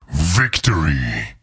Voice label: Victory, Announcer; liblast